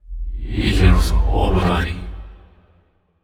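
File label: sad, voice; aragami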